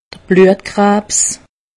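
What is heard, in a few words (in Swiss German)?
"bluetkrabs", Alsatian; medecine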